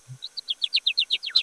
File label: Voice Acting, es